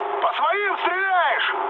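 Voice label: player friendly fire, mood med, Russian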